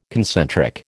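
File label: "concentric", American English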